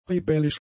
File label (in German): "rebellisch", German; adjectives